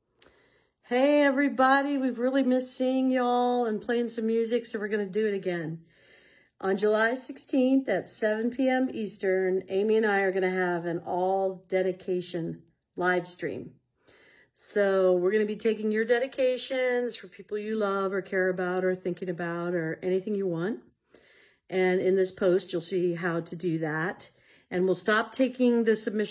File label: promo